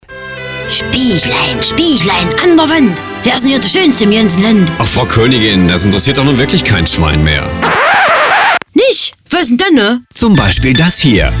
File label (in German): Computer Radiowerbung